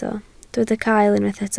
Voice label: "cael", Welsh

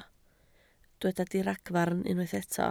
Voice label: "diragfarn", Welsh

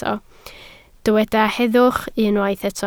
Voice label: "heddwch", Welsh

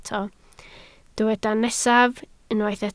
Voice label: "nesaf", Welsh

